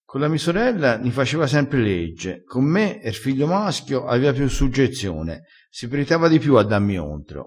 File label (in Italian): "fa legge", crespinese